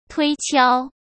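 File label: "推敲", Mandarin Chinese; chinese-flashcards; hsk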